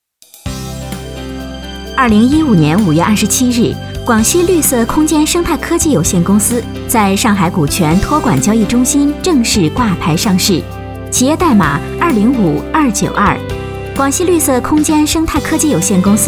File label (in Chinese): 女国 专题 新闻 新闻播报